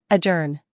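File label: "humor", British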